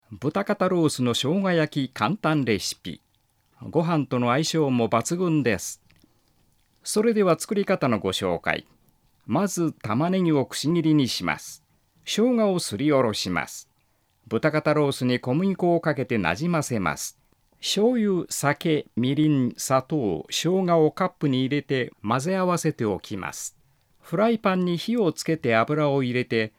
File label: male; 朗読歴40年余り。